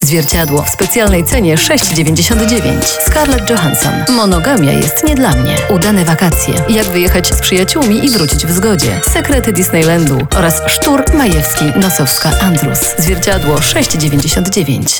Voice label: Female VO Commercial